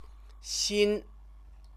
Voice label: "xin", Chinese